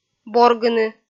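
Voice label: "боргыны", Komi-Zyrian, female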